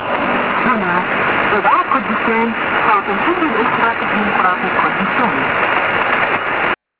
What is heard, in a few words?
Kurdistan Arabic, clandestine